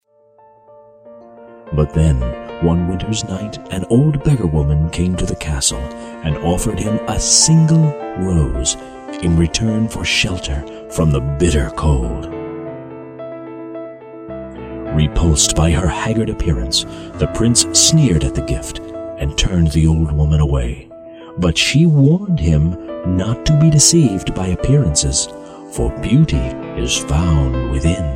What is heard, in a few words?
Story Narration